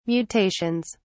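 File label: "mutations", British English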